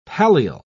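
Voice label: "pallial", British English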